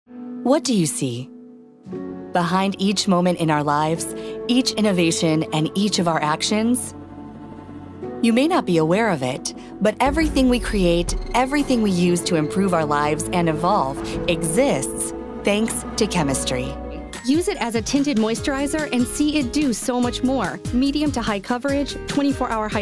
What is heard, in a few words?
english american commercial